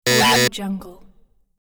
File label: dreamy talk